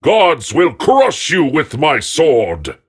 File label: Voices Phrase Hi